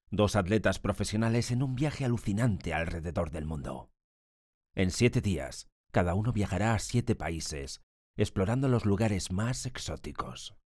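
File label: spanish male commercial; voice demos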